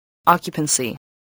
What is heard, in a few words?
"occupancy", voice, spelling